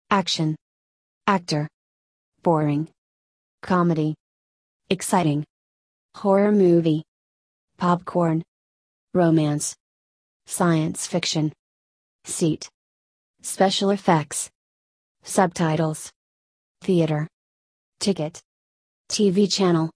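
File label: American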